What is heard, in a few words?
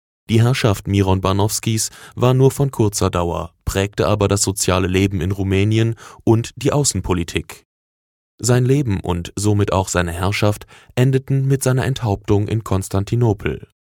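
German narration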